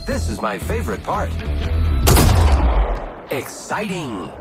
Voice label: exciting; apex